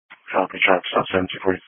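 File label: Police Patrol Dispatch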